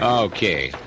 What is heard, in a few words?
"okay", expletives